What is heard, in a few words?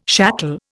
"shackle", Indian